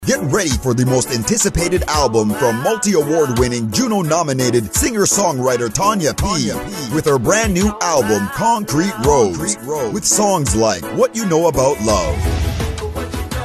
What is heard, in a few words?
Advert; Concrete Rose